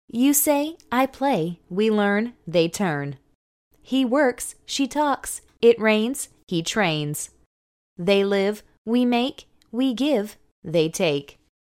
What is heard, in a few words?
Rhymes present simple